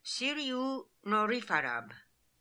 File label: "Sirioonorifarab", pronounciations; Fourth Way; Beelzebub